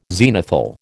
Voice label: "zenithal", American